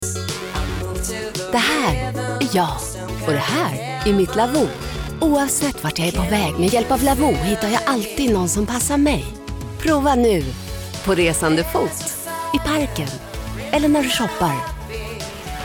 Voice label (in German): se werbung, schwedisch